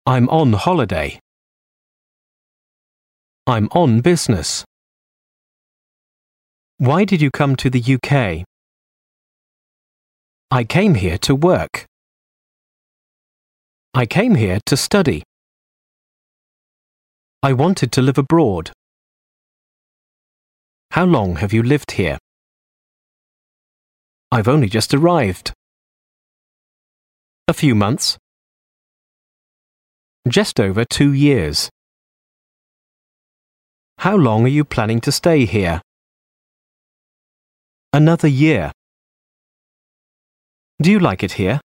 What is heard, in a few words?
English Sentences